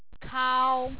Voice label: "kao1", Hong Kong tone